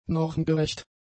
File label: "normgerecht", German; adjectives